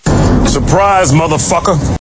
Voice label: surprise; cstrike; QuakeSounds